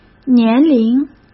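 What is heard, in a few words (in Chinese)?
"年龄", Mandarin Chinese; chinese flashcards; hsk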